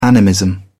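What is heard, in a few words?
"animism", British English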